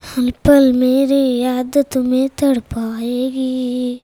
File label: CHILD VX, Indian Traditions; USB Soundscan vol.45; AKAI